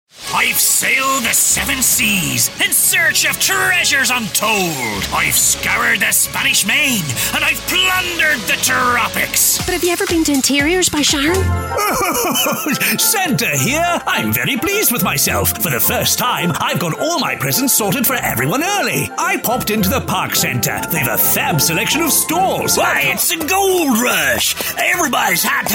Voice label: character